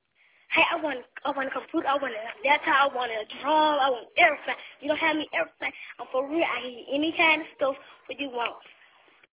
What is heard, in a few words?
voicemail; For A Good Claus